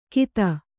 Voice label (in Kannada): "ಕಿತ", kannada